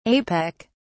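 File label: "apec", British English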